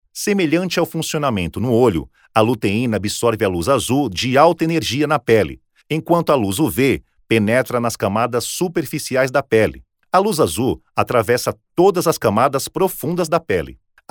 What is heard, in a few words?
portuguese brazilian audiobooks